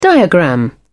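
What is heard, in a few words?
"diagram", British English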